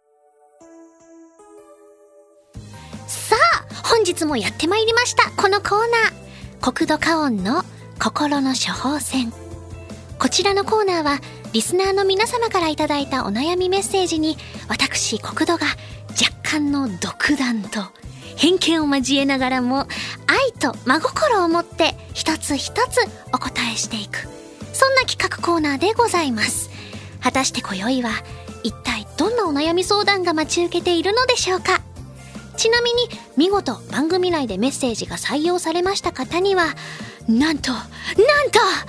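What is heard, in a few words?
Narration; RadioFu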